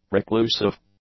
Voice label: "reclusive", English; adjectives

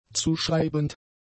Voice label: "zuschreibend", German; adjectives

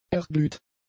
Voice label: "erglüht", German; adjectives

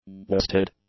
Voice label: "waisted", English; adjectives

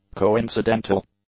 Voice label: "coincidental", English; adjectives

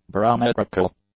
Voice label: "barometrical", English; adjectives

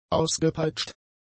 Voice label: "ausgepeitscht", German; adjectives

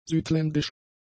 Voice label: "südländisch", german; adjectives

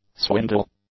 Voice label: "swindle", english; nouns

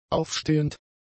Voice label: "aufstehend", German; adjectives

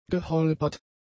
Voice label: "geholpert", German; adjectives

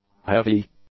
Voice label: "heavy", English; adjectives

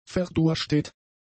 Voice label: "verdurstet", German; adjectives

